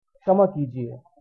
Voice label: sorry, hindi

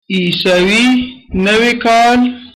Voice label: christmas, pashto

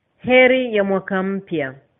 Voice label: christmas swahili

